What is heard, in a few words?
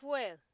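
"fuel", Nigerian Pidgin, female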